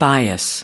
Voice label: "bias", us